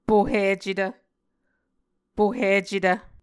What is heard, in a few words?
"pohicetv", Muscogee